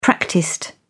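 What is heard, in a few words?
"practised", English, British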